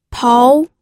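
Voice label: "pou", Mandarin Chinese, second tone; pinyin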